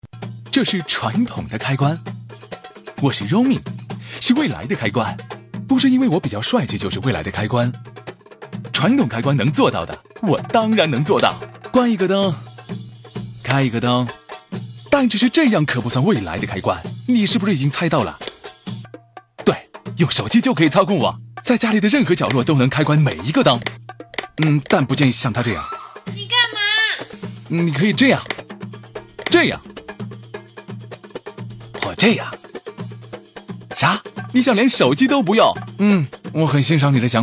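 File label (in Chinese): 【广告】开关精灵 超A男; roome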